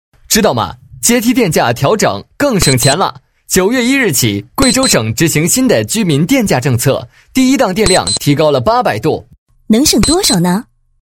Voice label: 男-男女合配; 阶梯电价改变

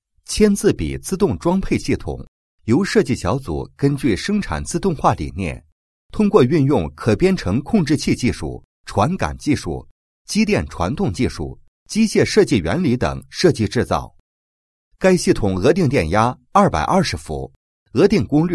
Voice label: 男 产品介绍, 签字笔自动装配系统介绍

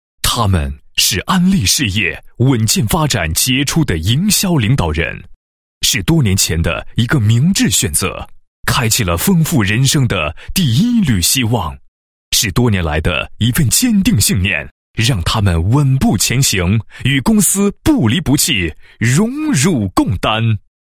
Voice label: 男 颁奖词; 安利荣誉奖

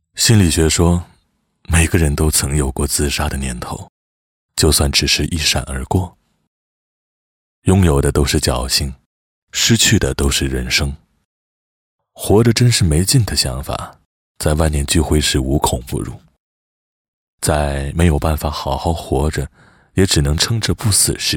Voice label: 男60号 高端品牌 自然说话的干声; 始终会回答的问题; 交广主播

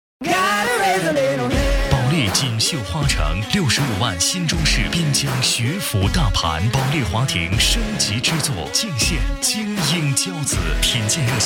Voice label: 广告, 锦绣地产

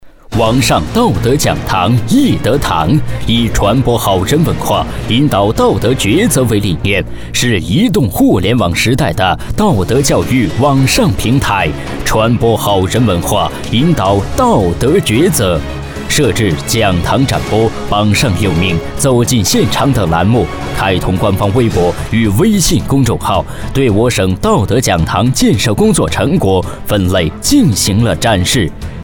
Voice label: 男 大气片头, 国语男声配音; 道德讲堂

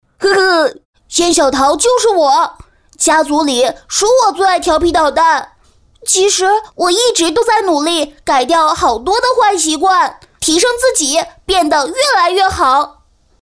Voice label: 女 男童, 国语女声配音; 仙小淘就是我

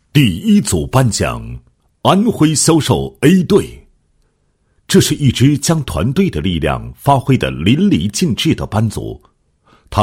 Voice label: 男 颁奖; 公司表彰大会 选手表彰

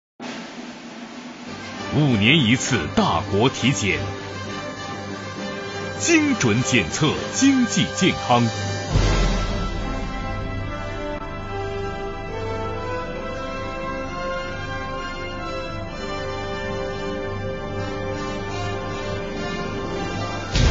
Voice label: 宣传片 配音; 第四次全国经济普查

